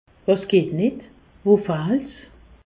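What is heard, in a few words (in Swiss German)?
"was geht nitt", Alsace, Haut Rhin; medecine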